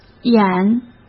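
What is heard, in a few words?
"演", Mandarin Chinese; chinese flashcards; hsk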